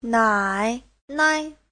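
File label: "nǎinai", Chinese; 奶奶; (danh từ) bà nội; Giáo trình chuẩn HSK3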